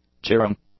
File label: "cheering", English; nouns